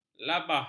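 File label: "labah", Malay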